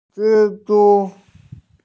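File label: "zhi1zhu1", Chinese, female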